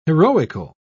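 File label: "heroical", British English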